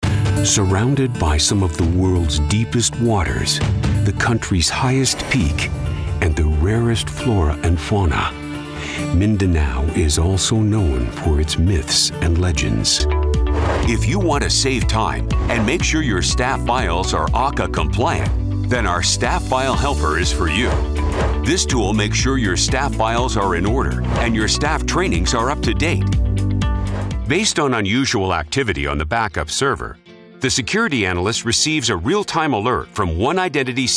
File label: voiceover examples